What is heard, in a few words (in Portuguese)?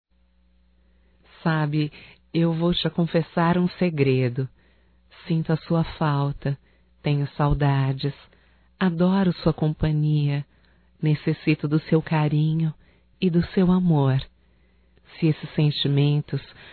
ROMANTICA(F), Românticas, fem